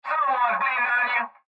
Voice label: Cb Trucker Radio; Radio Voice; Blastwave

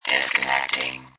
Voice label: Robot Voice "Disconnecting"

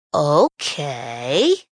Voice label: Ooh Kay Female, Exclamation; Okay; VoiceBox

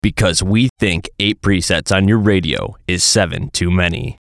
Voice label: VOICE COMICAL; Funny Sound; Radio Voice; Production Element, Imaging Element, Accent, Transition; Blastwave

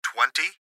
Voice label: Gps Voice, Male; Twenty; Laptop Speaker Adult Male; Recorded Voice; Blastwave

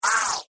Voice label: Alien Voice Sound; Alien Sound Effects; science fiction sound effect

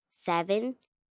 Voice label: Numbers "Seven", 1 child, girl counting; Talking Sound Effects; Sound Effects:Voice; VoiceBox

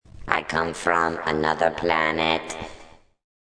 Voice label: Robot says I Come from Another Planet; Robot Speaks 4

